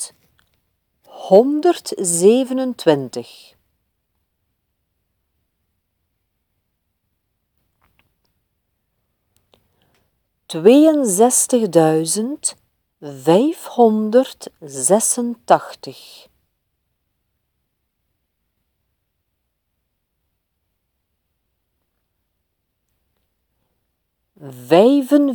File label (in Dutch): Cijferdictee